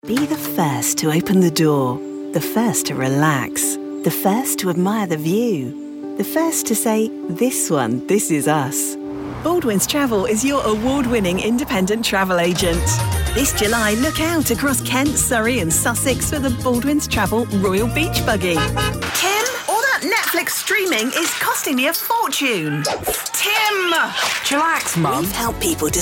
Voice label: summer radio commercials